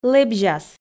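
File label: "лэбзяс", Komi, female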